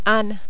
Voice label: "Anne", French

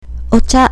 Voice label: "ocha", Japanese

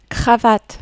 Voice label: "cravate", French

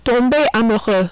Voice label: "tomber amoureux", French

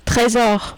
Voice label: "tresor", French

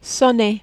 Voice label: "sonner", French

